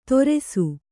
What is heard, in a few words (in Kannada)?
"ತೊರೆಸು", Kannada